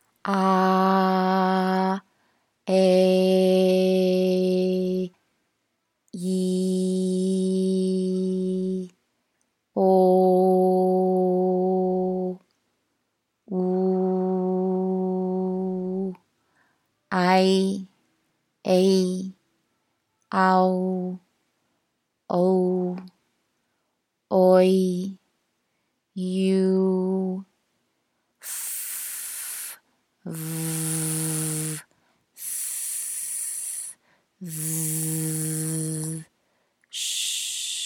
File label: vowel overtones